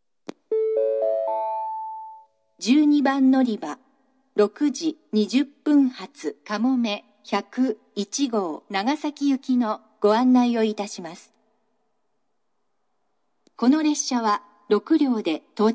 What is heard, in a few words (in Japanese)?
12番のりば案内放送; かもめ101号 長崎行き; shin omura; 入線の概ね4～5分前に流れます。